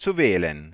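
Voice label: "ready to dial", German; Samsung SGH-i310; Voice Sounds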